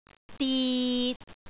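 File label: "dit6", Cantonese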